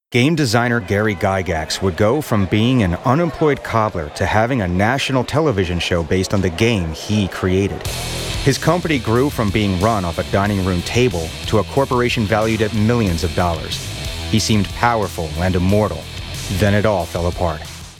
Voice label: Narration Bio